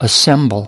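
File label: "assemble", English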